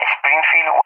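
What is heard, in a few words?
"Springfield", English, SPAIN; CallSign; DCS; Allied Flight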